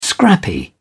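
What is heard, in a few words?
"scrappy", British English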